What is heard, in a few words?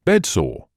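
"bedsore", British English; ˈbed.sɔː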